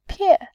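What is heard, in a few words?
"pie", Mandarin, tone 4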